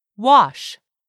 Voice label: "wash", English word; 3000 words